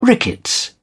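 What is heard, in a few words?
"rickets", British English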